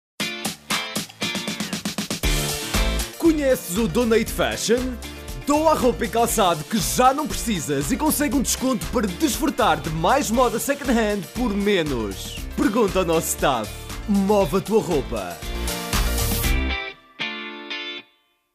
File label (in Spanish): PORTUGUES; HUMANA; PORTUGAL; DONATE-FASHION